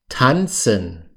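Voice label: "tanzen", German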